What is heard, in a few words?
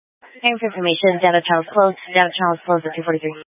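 Police 1 Dispatch, Scanner audio recording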